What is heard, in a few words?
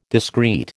"discreet", American